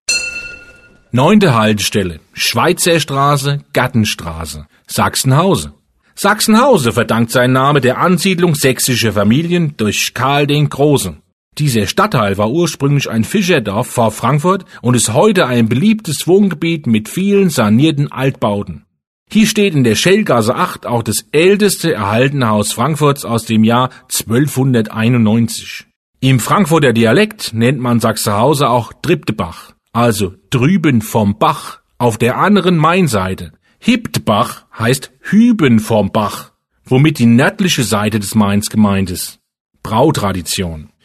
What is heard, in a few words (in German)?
Hessisch; Schweizer Gartenstrasse; Ebbelex; Entlang der Strecke